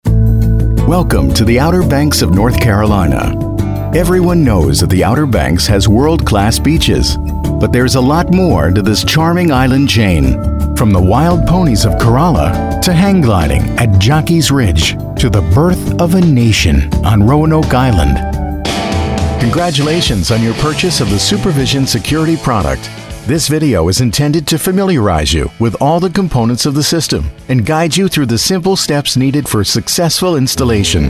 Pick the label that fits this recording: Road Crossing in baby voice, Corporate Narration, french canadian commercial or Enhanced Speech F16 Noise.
Corporate Narration